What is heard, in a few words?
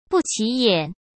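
"不起眼", Mandarin Chinese; hsk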